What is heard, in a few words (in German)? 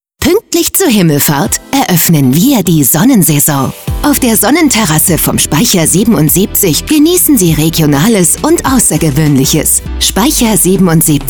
Funkspot Recruiting; ZGO hindertenhilfe Norden; Funkspot Recruiting Sek